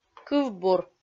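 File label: "кывбур" Komi, female; kpv audio dict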